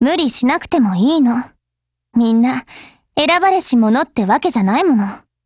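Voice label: DIALOGUE, Japanese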